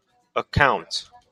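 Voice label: "account", Taiwanese male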